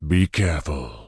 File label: Tauren Male Elder NPC Farewell